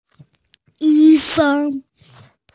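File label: "yi1sheng1", female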